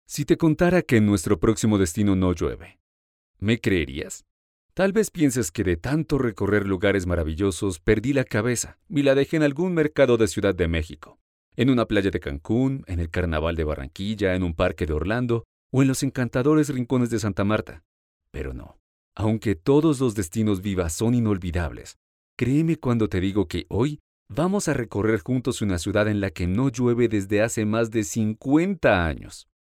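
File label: Spanish Latin America male voice over, narrative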